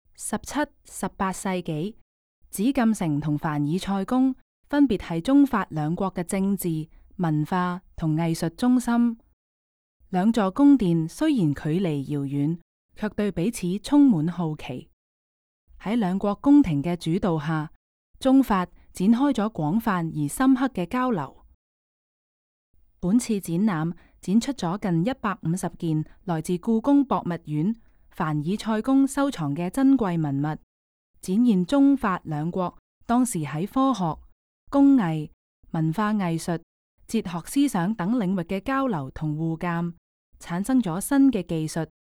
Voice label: Cantonese, audio guide; versailles